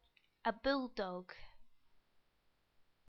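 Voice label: "a bulldog", English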